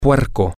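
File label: "puerco", Spanish, male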